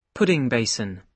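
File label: "pudding basin", British English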